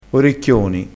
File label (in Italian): "orecchioni", crespinese